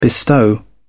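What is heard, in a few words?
"bestow", British English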